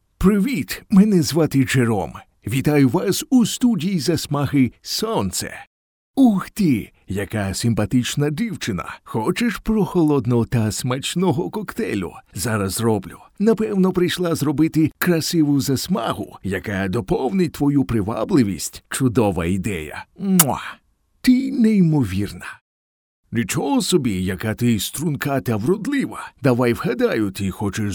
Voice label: ukrainian